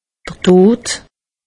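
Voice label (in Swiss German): "tod", Alsatian; medecine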